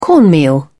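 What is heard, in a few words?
"cornmeal", British English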